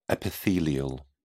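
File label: "epithelial", British English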